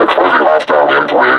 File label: announce attack cover, combine suppressor